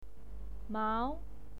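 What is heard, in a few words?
"mao", Mandarin Chinese, second tone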